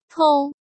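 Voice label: "Pōu", Chinese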